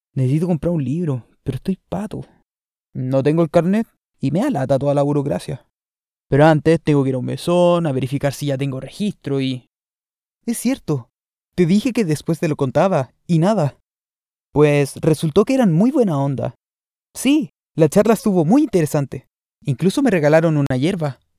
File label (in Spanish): Spanish (LA) Male